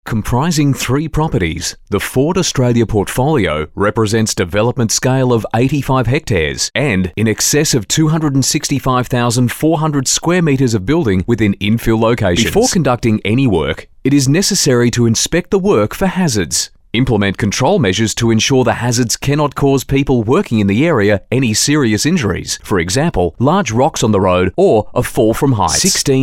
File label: Corporate